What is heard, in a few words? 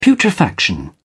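"putrefaction", British English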